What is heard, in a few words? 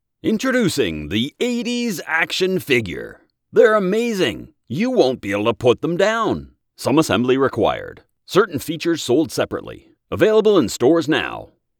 80s Salesperson